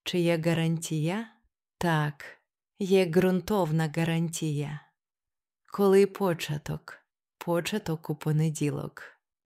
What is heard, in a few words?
Business Dialogue, Ukrainian pronunciation